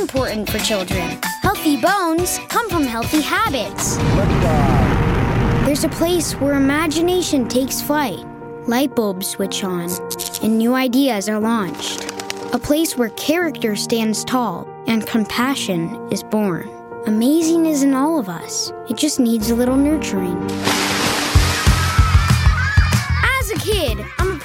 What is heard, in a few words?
kids commercial; Smart and curious pre-teen with a lot of energy!